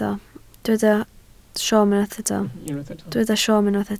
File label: "siom", welsh